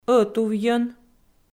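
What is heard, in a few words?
"ӧтувйӧн", Komi, female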